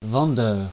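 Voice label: "vendeur", French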